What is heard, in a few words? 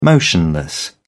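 "motionless", British English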